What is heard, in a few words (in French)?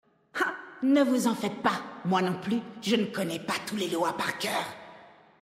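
French Female Blague; Troll Zandalari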